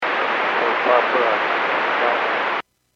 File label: Airports 122.7, Radio; KSTE